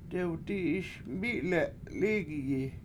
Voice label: "dewdI7iS mI7le7 lIIgiyi" I Saw Every Tree, Washo